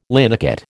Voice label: "linocut", American

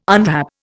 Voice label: "unwrap", Indian

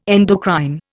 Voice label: "endocrine", Indian

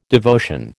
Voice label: "devotion", American

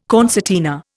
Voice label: "concertina", Indian

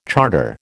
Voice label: "charter", American

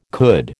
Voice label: "could", American

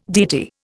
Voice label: "deity", Indian

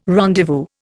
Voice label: "rendezvous", Indian

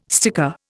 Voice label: "sticker", Indian

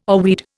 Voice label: "oarweed", Indian

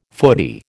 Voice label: "foodie", American

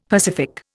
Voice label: "pacific", Indian; Dictionary

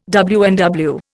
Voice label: "WNW", Indian

